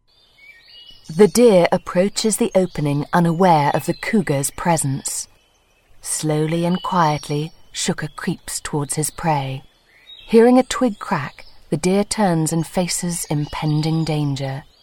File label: Documentary Neutral accent